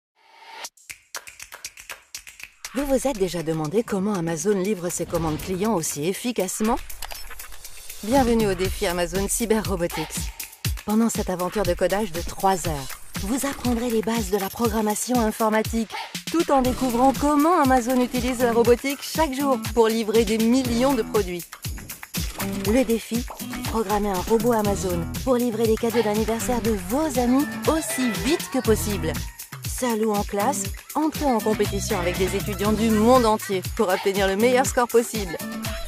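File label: French, female, commercial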